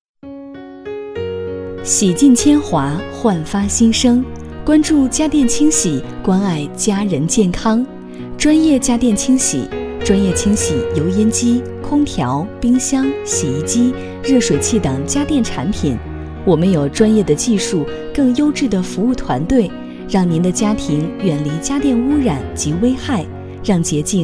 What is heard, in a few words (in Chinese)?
温馨 彩铃